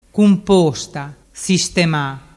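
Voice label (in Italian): "cumposta sistema", dialect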